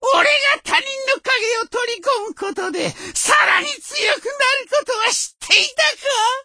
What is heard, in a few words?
voice, dubbing